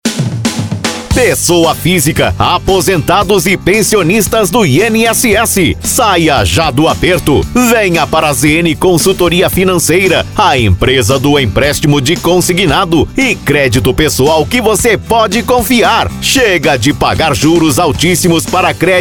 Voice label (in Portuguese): Spot Simples; Alvorada